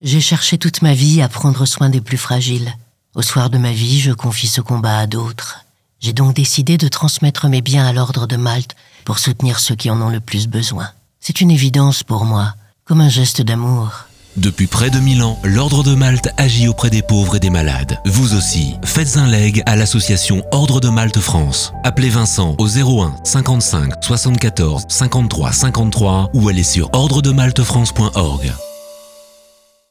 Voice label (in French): SPOT PUB AVEC MUSIQUE